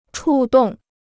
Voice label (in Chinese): "触动", Chinese